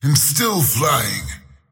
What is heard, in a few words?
lasthit pain; slyrak; dota